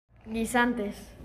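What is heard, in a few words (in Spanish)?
"guisantes", Spanish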